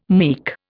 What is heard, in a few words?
"meek", Indian